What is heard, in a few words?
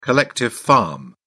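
"collective farm", British English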